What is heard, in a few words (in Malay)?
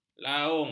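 "laung", Malay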